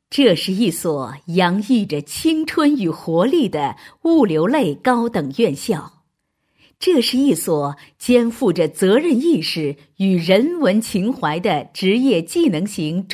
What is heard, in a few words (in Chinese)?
女 专题 职业技术学院